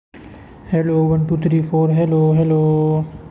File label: voice message